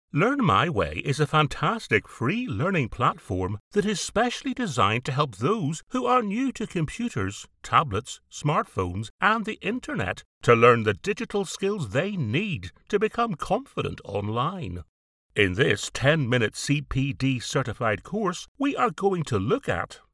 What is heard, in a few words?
ELearning course narrator